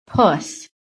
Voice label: "PUSS", american